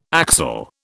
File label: "axel", British